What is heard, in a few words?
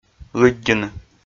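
"лыддьыны", Komi, male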